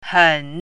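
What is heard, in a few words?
"hen3", Mandarin Chinese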